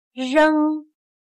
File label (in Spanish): "扔", Chino